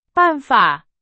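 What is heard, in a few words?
Chinese, vocabulary; hsk2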